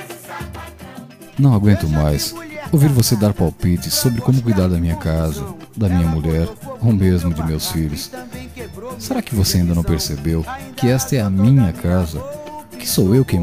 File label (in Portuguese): Bronca na sogra entrometida VÓZ MASCULINO